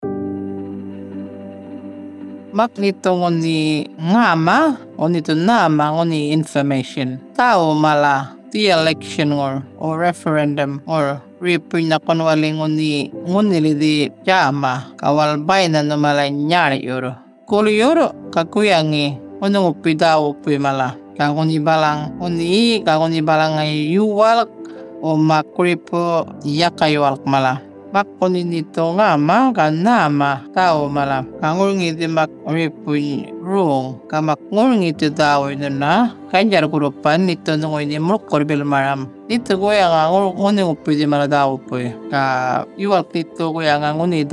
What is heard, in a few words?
Yolngu Matha, Radio mix; indigenous; AEC Misinfo